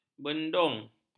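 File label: "bendung", Malay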